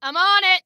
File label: On It, Female